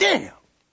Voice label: "damn", expletives